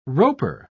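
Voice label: "roper", British English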